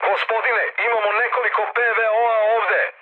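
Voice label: Serbian damaged aa mood med, speech; War Thunder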